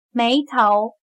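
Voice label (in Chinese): "眉头", Chinese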